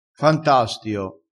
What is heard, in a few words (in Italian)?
"fantastio", vocabolario dialettale